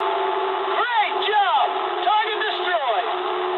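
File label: English bomb success mood low; War Thunder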